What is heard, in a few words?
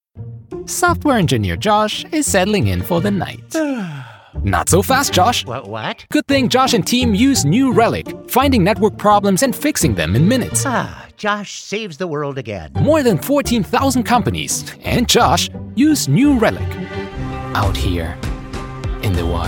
english international commercial